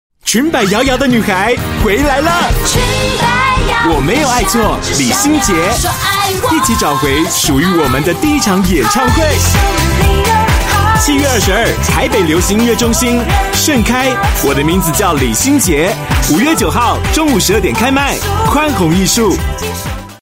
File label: Taiwanese